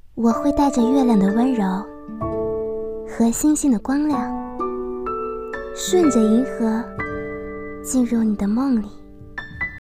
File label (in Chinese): 情感句子御姐